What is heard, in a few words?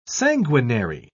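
"sanguinary", British English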